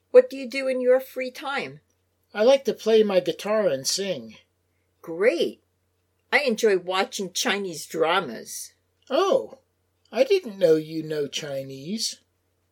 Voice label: Natural Dialog; Lesson 1